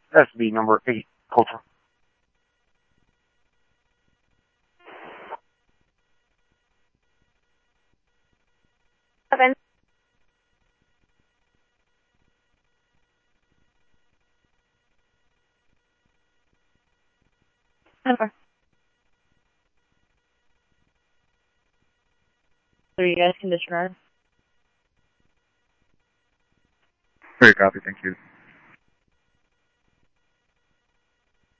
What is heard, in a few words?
Light Rail Secur Light Rail, scanner audio; ThompsonPeakHigh